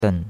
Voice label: "den3", chinois; Cours de Chinois

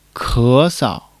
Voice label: "ke2 sou", Chinese

